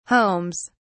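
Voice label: "homes", British English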